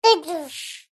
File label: human child 15 months boy say word jibberish; zapsplat